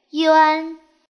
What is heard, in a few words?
"yuan", pinyin